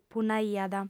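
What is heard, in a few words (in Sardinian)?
"punnaiat", female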